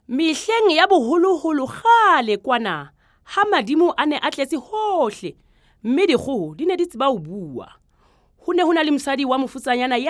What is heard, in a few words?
SESOTHO